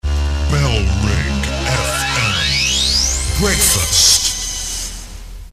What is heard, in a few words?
breakfast, male, reverse bed; bfm; bailrigg fm; bfm jingles ads RSL 1994